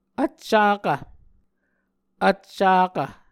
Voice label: "vccakv", Muscogee; Mvskoke Words with Repeated Consonants; Martin, Jack B., and Margaret McKane Mauldin. 2023. A Dictionary of Creek/Muskogee